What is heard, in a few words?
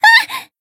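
Voice damaged, Japanese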